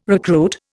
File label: "recruit", Indian